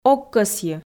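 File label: "ог кӧсйы", Komi, female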